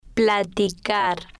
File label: "platicar", spanish